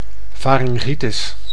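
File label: "faryngitis", Dutch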